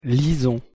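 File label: "lisons", French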